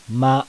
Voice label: "MA", kana

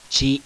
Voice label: "CHI", kana

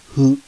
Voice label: "FU", kana

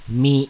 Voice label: "MI", kana

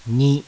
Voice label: "NI", kana

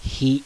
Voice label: "HI", kana

